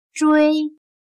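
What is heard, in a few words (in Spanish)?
"追", chino mandarin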